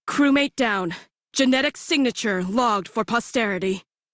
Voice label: Crew Died Female en; Railjack Crew; Cephalon Suda

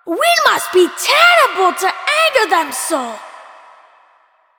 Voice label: Child; Ostron; Warframe; Cetus Invasion; Villagers; en

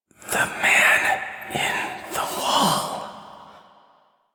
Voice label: Intelligible Whisper G; Rell; Warframe; Harrow

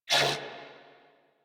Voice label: Threatened, Female; Warframe; New War; Narmer Grineer

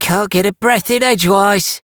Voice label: Cant Get A Breath, en; Minigames

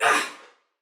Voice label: Block Female; Dialog; Barks; Battle; Sinecure

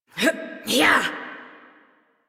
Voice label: Adult; Horse Sprint; Warframe; Duviri Paradox Quest

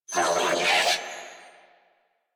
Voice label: Cover Me, Female; en; Warframe; New War; Narmer Grineer

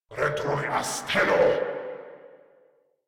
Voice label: Attack, Grineer Male

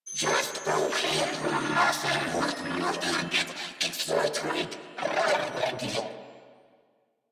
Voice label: Patrol, Female; en; Warframe; New War; Narmer Grineer

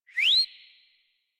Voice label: Horse Start Adult; Warframe; Duviri

